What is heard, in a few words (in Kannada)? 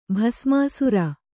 "ಭಸ್ಮಾಸುರ", kannada